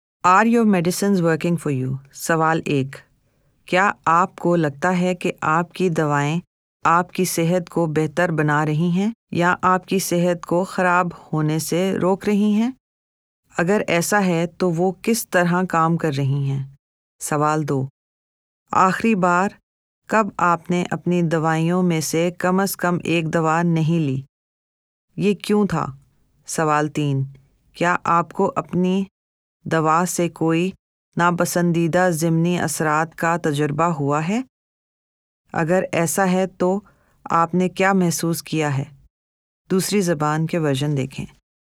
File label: GP Checklist Patient Urdu